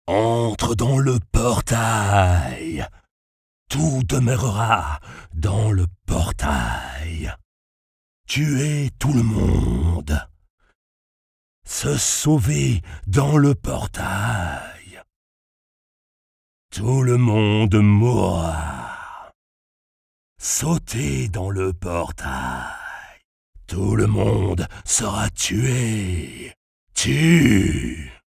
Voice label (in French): voix medium soufflee scary jeu video; Cosmos